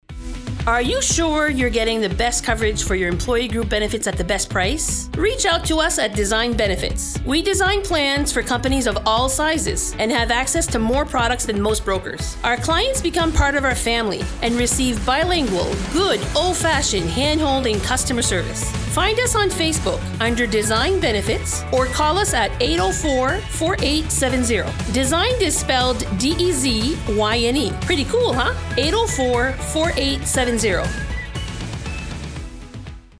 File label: English Commercial